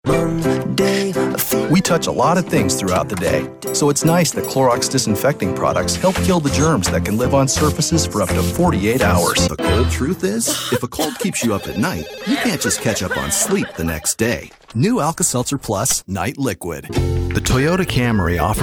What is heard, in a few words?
Commercial, Male voices